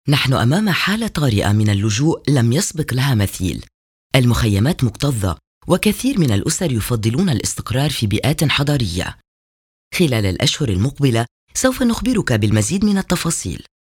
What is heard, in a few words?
locutora arabe libano arabic lebanon voiceover; Arabic female voice over from Lebanon